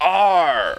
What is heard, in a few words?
pirate arrrr; pimoroni-pico; pico audio